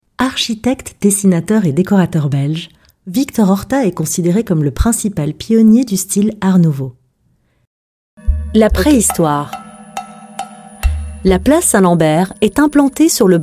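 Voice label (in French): narration French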